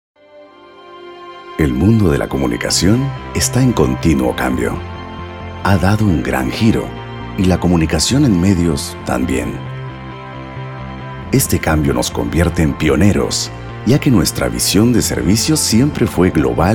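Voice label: Spanish male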